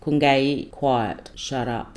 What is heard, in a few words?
"kungay" quiet shut up